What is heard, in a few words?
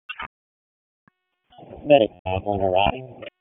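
Public Safety Tactical, p25; wake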